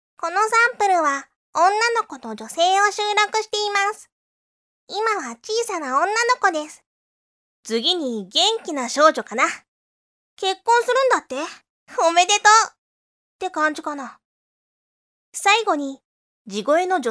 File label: girl, voice